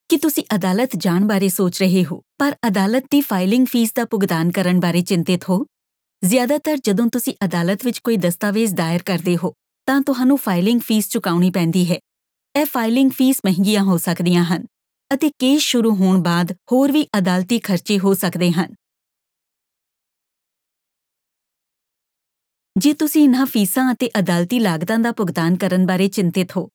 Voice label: Fee Waiver PSA Punjabi